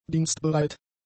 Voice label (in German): "dienstbereit", german; adjectives